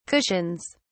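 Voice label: "cushions", British English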